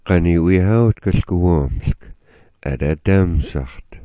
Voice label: "damsax", smalgyax